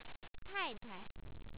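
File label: "ta4itai", Chinese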